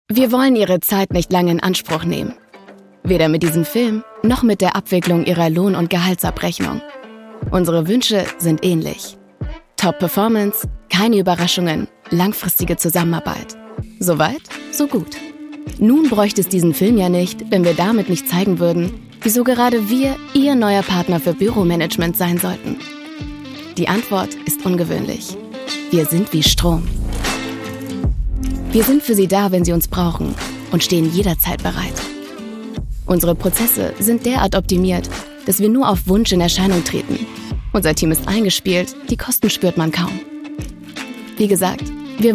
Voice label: Imagefilm, Presentation, German; ad acta Büromanagement